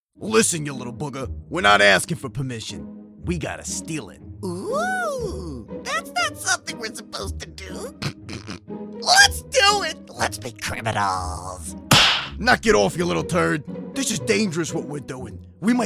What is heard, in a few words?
Animation